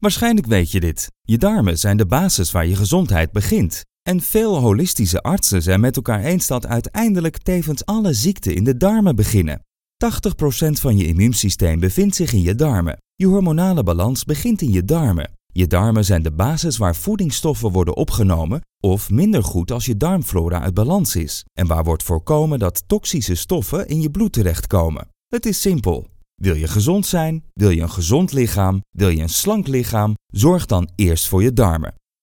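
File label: male voice, General, Dutch; voice demos; GoLocalise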